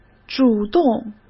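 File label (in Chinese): "主动", Mandarin Chinese; hsk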